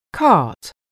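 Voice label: "cart", English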